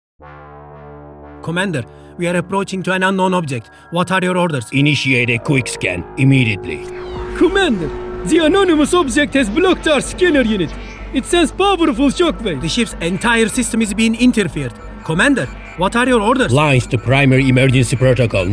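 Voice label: TR AccEN, Turkish accented English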